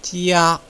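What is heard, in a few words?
"jia", Mandarin Chinese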